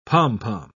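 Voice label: "pom-pom", British English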